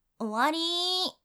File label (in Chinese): 结束ー, 女生